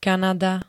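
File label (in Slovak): "Kanada", Slovak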